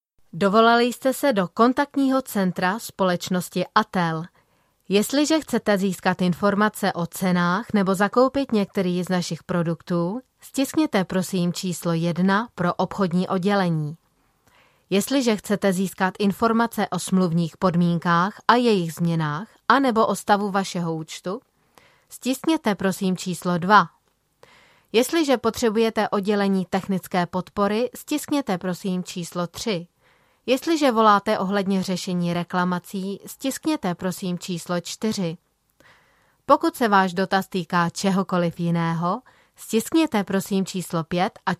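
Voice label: Czech, female, IVR; voice over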